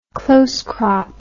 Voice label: "close crop", English

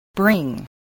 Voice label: "bring", spelling

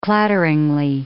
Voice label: "clatteringly", English

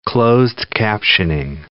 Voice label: "closed-captioning", English

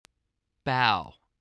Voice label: "bow", spelling

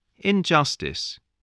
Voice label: "injustice", voice spelling

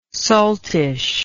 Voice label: "saltish", English

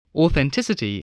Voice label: "authenticity", english

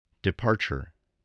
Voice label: "departure", English

